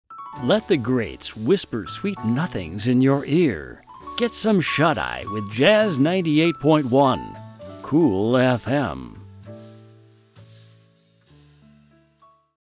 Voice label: Jazz Imaging